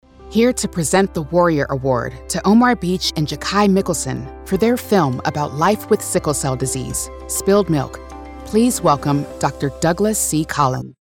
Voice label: Voice of God Inspirational Warm Warrior Award Announce